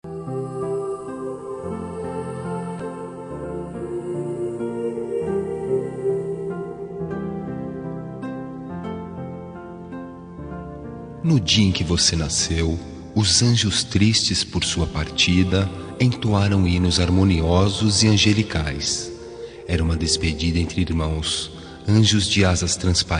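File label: male; religioda; anjos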